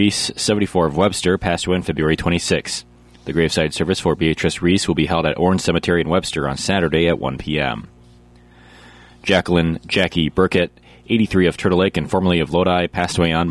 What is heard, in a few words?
Funeral Announcements